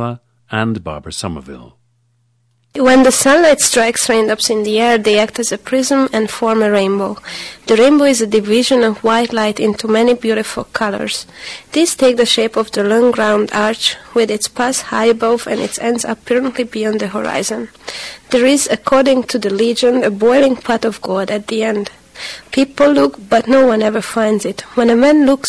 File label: hungary, dialects